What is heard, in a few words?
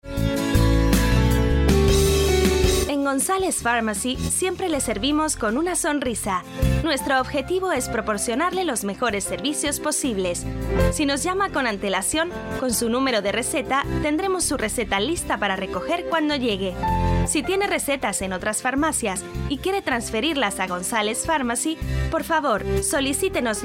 SPANISH VOICE